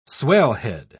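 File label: "swellhead", British English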